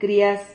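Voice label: "crias", Spanish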